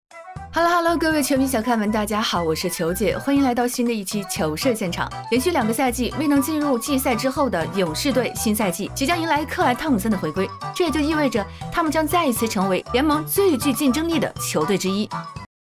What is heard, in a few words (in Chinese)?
解说类偏正风格